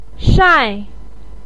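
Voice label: "shai", Chinese pinyin, fourth tone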